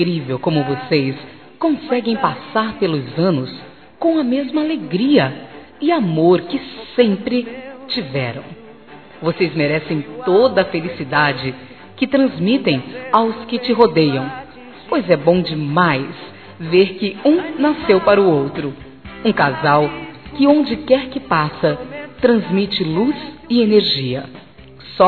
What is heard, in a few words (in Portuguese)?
Aniversário Casamento Geral Feminino; Aniversários